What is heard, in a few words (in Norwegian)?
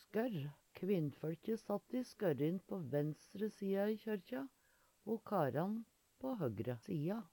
skorr, Numedalsmål; skørr